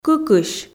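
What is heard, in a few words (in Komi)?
"кыкысь", Komi, female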